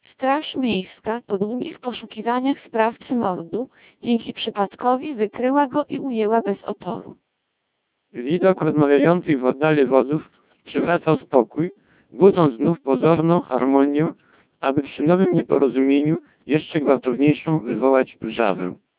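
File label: speech CODEC2 1600 bps, Polish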